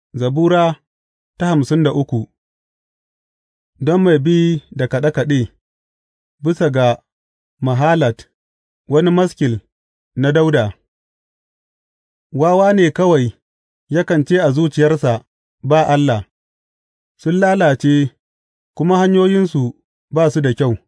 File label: hausa bible drama, psalms; hausa bsn nt drama